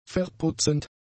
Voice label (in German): "verputzend", german; adjectives